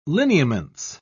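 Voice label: "lineaments", British English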